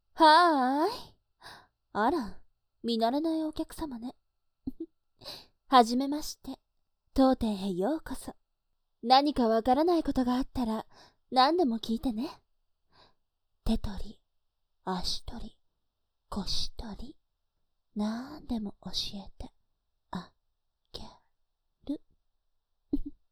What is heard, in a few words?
voice sexy